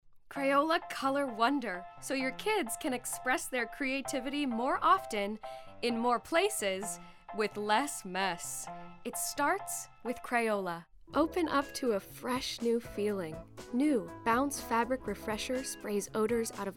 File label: voice over reel